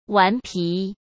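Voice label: "顽皮", Mandarin Chinese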